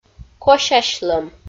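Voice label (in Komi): "косясьлӧм", Komi-Zyrian, female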